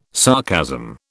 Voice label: "sarcasm", British